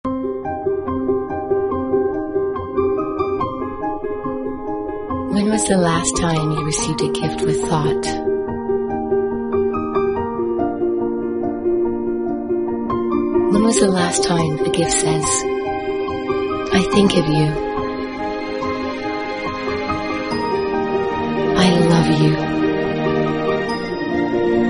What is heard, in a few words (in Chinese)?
英語女聲; Chocolate